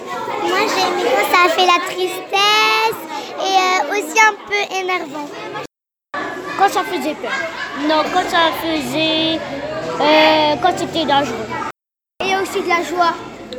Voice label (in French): interview enfants